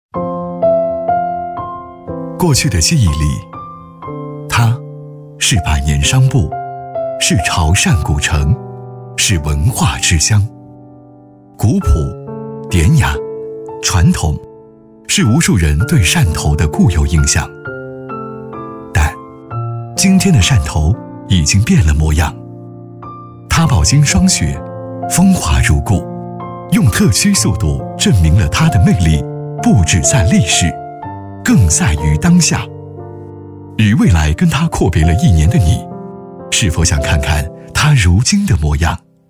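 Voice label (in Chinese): 男 专题（独白深沉）; 汕头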